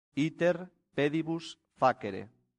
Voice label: "iter pedibus facere", latin; santillana

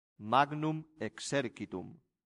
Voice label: "magnum exercitum", latin; santillana

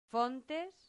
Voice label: "fontes", Latin; santillana

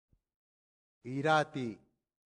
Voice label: "irati", Latin; santillana

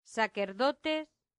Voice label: "sacerdotes", latin; santillana

